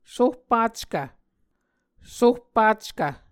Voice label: "sohpackv", Muscogee